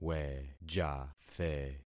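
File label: "wejathe", concatenated syllables; Affixing experiment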